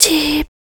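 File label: vocal female, Dance Vocals, spoken words; Best Service ProSamples vol.12; AKAI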